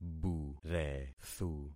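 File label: "burethu", concatenated syllables; Affixing experiment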